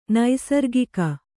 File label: "ನೈಸರ್ಗಿಕ", Kannada